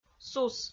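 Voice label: "сус", Komi-Zyrian, female; audio dict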